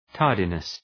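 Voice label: "tardiness", English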